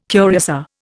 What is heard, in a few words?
"curiosa", Indian